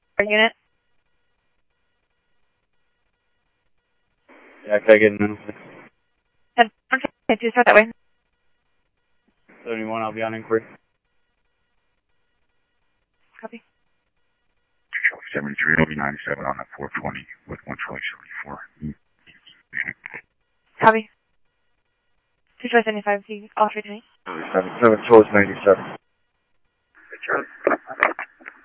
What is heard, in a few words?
Police, scanner audio